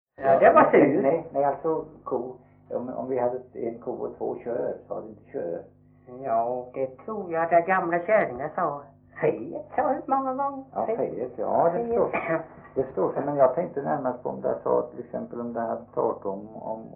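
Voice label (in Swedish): Veddige Halland, Svenska sagor dialekter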